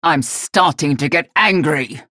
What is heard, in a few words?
chatter negative; galena; respawn